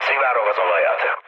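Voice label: Hungarian damaged oil mood low, speech; War Thunder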